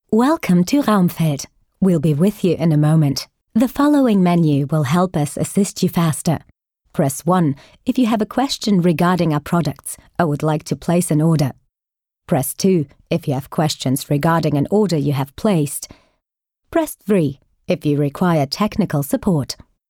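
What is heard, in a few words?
German, female, accented English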